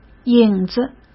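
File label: "影子", Mandarin Chinese; chinese-flashcards; hsk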